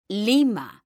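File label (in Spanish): "LIMA", female; mekanta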